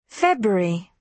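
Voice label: "february", British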